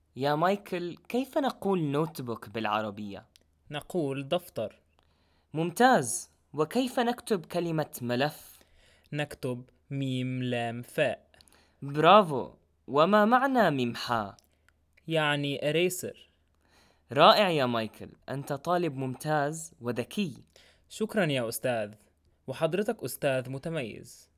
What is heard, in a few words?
Dialogue, arabic